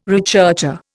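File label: "recherché", Indian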